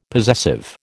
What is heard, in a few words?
"possessive", American